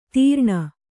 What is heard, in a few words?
"ತೀರ್ಣ", Kannada